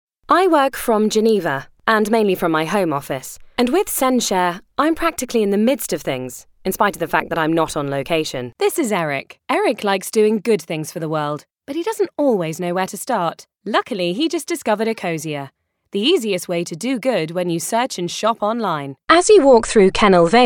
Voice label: Business British